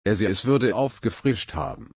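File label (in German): frischen, German slow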